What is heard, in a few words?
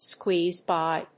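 "squeeze by", English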